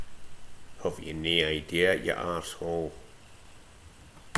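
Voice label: "huv", slang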